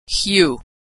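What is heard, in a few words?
"hue", American English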